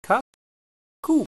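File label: "cup", Elizabethan English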